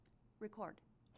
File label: female Speech; matlab; deeplearning